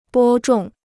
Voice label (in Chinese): "播种", Chinese